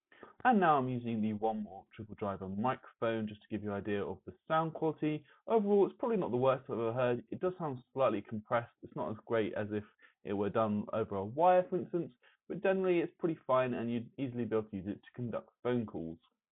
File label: Triple Driver BT mic test